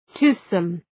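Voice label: "toothsome", English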